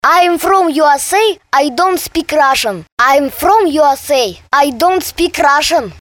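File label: English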